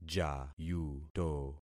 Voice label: "jayuto", concat syllables; Affixing experiment

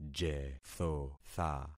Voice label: "jethotha", concat syllables; Affixing experiment

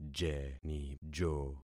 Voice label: "jenijo", concat syllables; Affixing experiment

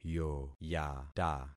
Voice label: "yoyata", concat syllables; Affixing experiment

